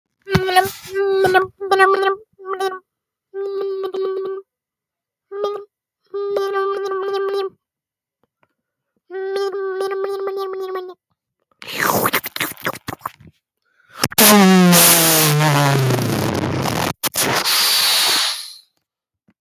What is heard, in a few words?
voice message